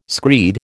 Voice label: "screed", American